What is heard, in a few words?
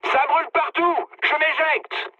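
on fire, mood medium, French; War Thunder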